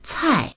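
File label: "cai", Mandarin; 菜; 料理、おかず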